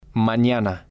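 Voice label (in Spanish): "manana", spanish, male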